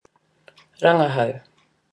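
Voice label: "rangahau", Måori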